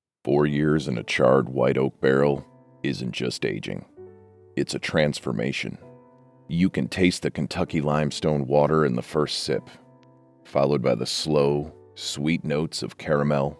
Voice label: Beverage Reel